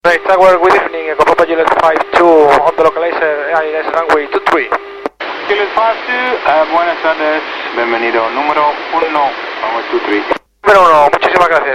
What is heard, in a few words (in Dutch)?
eelde airport tower praat gedeeltelijk spaans; lt-ec-imf inbound eelde airport